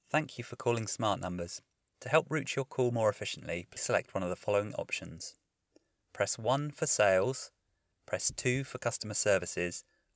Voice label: Example IVR